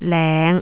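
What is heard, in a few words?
"leng5", Cantonese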